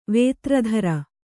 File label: "ವೇತ್ರಧರ", kannada